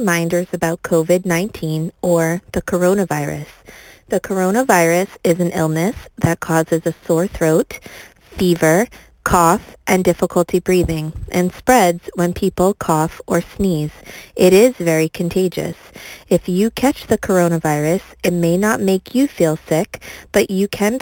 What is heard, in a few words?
Nurse Message English